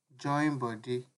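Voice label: "join body", Nigerian Pidgin, male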